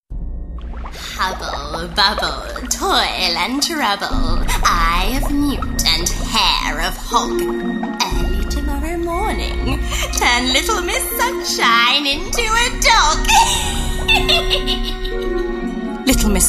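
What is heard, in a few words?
animation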